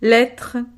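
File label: "lettre", French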